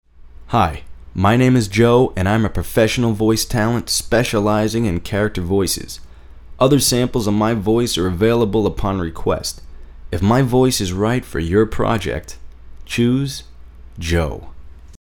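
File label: US, voice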